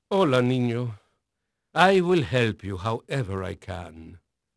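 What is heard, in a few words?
Spanish male, voice; Pirates Of The Caribbean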